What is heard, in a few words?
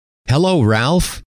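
"hello", male; trainer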